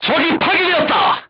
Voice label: voice message target destroyed, korean, crew tanks